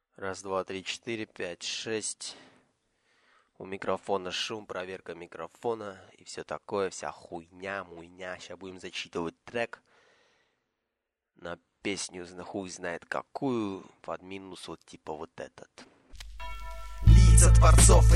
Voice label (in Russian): russkiy rap